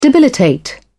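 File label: "debilitate", British English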